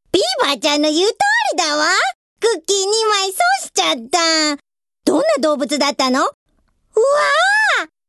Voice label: chara, female voice